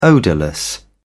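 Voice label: "odourless", English, British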